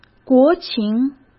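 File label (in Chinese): "国情", Mandarin Chinese; chinese flashcards; hsk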